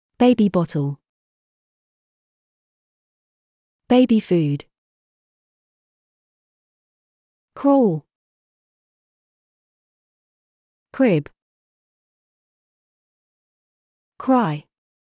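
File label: British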